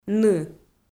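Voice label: "ны", Komi, female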